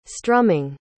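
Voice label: "strumming", English pronunciation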